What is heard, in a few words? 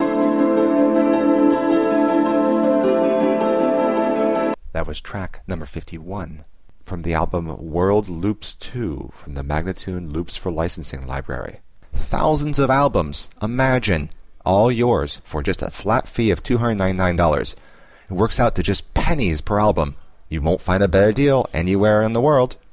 079 D spoken, World Loops; World Loops 2; MagnaLoops